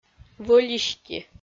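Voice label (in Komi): "вӧлисти", Komi-Zyrian, female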